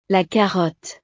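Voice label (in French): "la carotte", French; verduras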